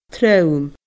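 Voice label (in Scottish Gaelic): "trom", Scottish Gaelic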